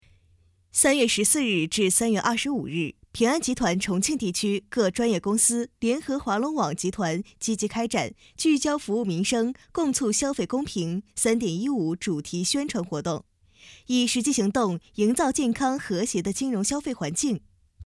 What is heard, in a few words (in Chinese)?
新闻